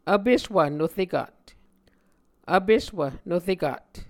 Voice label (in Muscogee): "vpeswv norekat", Muscogee